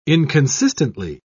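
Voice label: "inconsistently", British English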